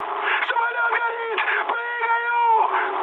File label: on fire, mood high, speech ru; War Thunder